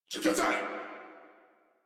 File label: surprised crewmen; Warframe; Corpus Crewmen; Crewman B